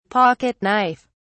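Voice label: "pocket knife", British English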